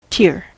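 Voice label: "tear" noun, US English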